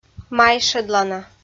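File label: "майшӧдлана", Komi, female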